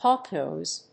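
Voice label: "hawk nose", English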